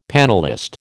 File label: "panellist", American